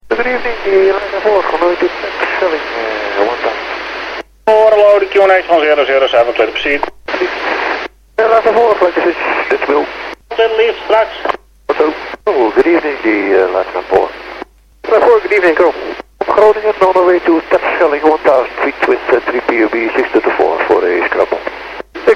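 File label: lifeliner scrambled terschelling tower en dutchmil fis 132.350; ehgg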